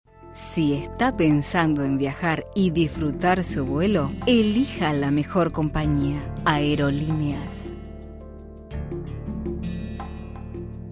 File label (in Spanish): Comercial; Aerolineas Commercial Sample